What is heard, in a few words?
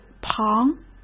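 "旁", Mandarin Chinese; hsk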